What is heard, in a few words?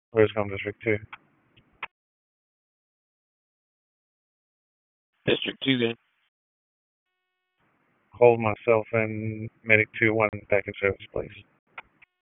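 Wake County EMS Dispatch, p25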